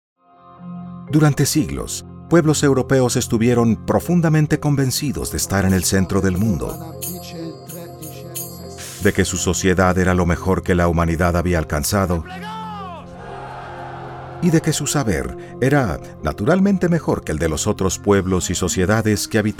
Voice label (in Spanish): documental